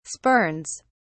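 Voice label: "spurns", English, British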